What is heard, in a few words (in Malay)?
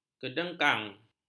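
"kedengkang", Malay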